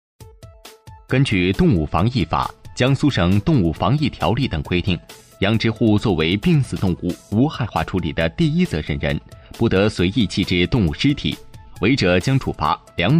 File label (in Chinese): 男 课件 政府公告语音广播